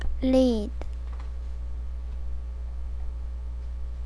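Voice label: "lead", spoken word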